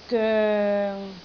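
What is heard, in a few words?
"goeng6", Cantonese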